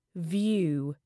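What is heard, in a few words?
"view", British English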